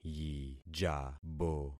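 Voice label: "yijabo", concatenated syllables; Affixing experiment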